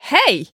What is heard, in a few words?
Voice Female Hey